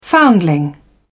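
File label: "foundling", English, British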